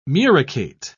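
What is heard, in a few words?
"muricate", British English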